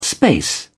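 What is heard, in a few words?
"space", English, British English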